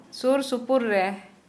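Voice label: "nearby", Santali, female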